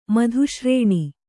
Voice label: "ಮಧುಶ್ರೇಣಿ", kannada